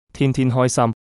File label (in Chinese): 天天开心, Cantonese; 天天開心